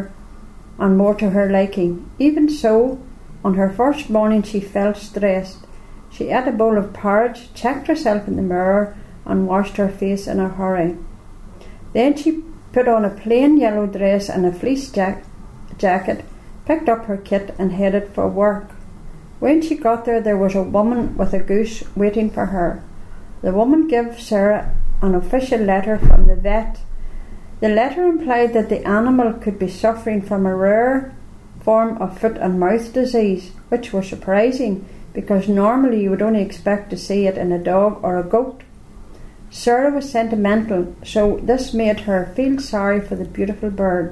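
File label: passage, Irish English, The North of Ireland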